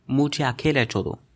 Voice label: go away, hindi